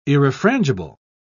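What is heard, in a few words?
"irrefrangible", British English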